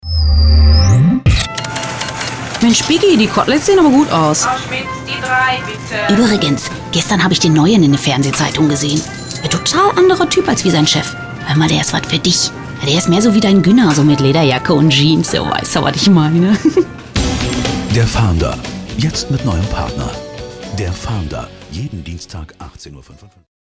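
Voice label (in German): szene dialekt, sprecher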